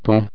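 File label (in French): "pont", French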